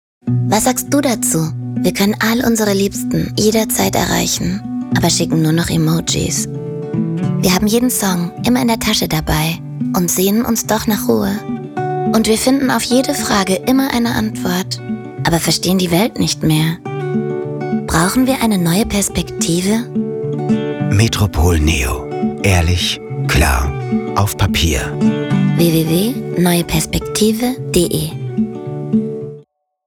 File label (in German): Sprecherin; Metropol Neo